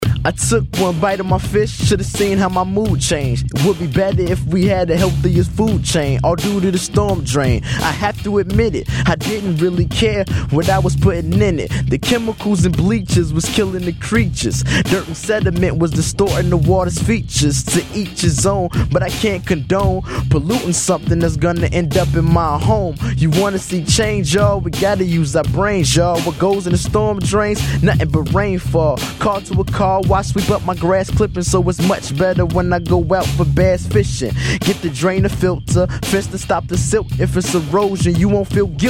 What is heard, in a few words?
radio public service announcement; Stormwater